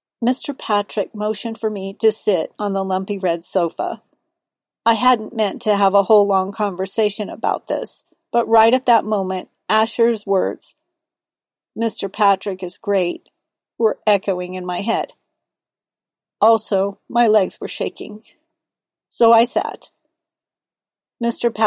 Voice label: Juvenile Fiction First Person M F voices; Voice type: Alto